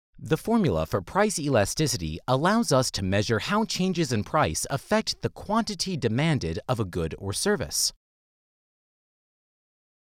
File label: eLearning